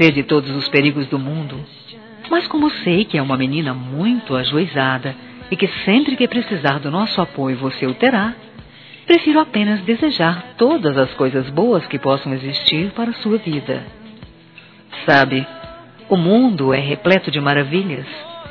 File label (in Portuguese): Aniversário Neta Feminino; Aniversários; Aniv Familiar